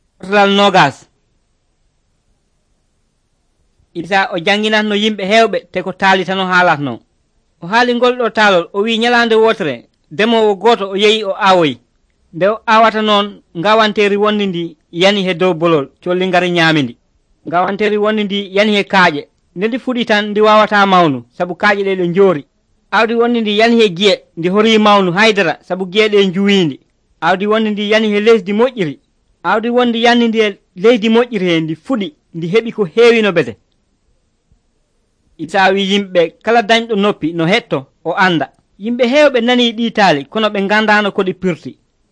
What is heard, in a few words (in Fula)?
pulaar fulacunda, drama